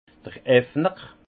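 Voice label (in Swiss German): "effner", Haut Rhin; vin; décapsuleur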